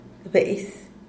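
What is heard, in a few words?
"bees", Zapotec, Díaz Ordaz; poco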